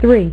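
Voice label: "three", female; MacCribbage; InterStellar MacCribbage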